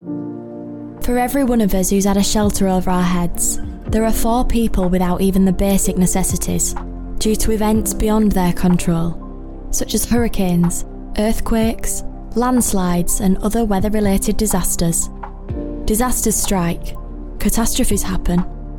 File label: female english yorkshire accent campaign appeal; english northern accents